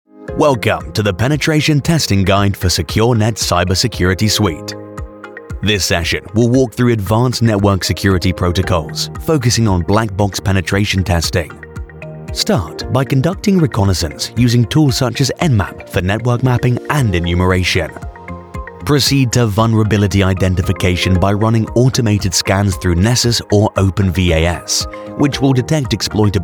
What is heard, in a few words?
UK English explainer